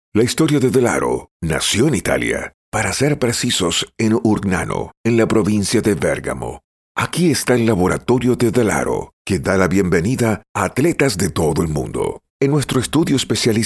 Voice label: Spanish Voice; Decibel